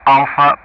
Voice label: "Alpha", ENG; Ka-50; DCS